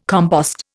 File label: "compost", Indian